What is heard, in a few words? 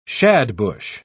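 "shadbush", British English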